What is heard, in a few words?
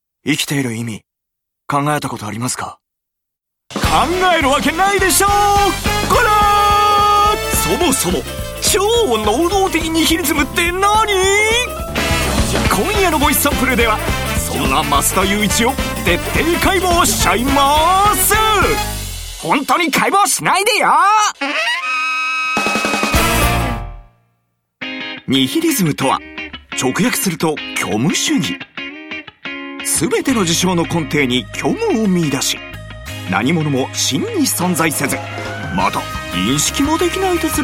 japanese tv shows